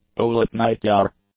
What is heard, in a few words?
"Owlet-Nightjar", English; nouns